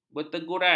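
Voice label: "berteguran", Malay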